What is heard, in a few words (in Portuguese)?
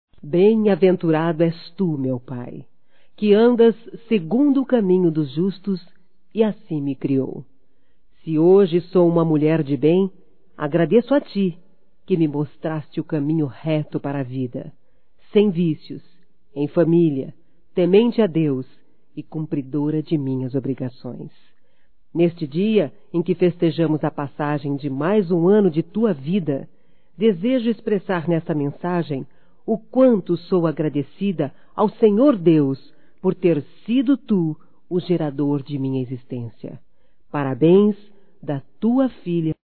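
EVANG ANIVERSÁRIO DE PAI, Feminino; Aniversarios; Telemensagens; Telemensagens 2017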